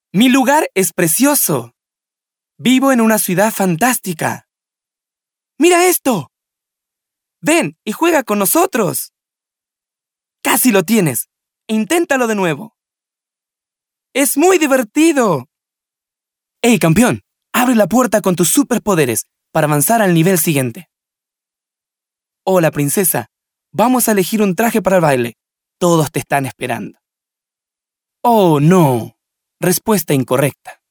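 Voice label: Spanish male children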